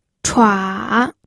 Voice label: "chua3", pinyin